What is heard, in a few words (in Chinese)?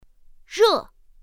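"rè", Mandarin Chinese; pinyin2; pinyinji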